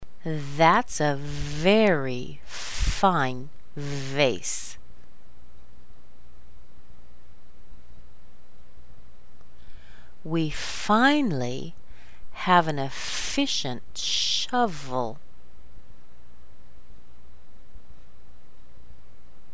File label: F V sentences slow, American accent